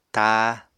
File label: "táa", Apatani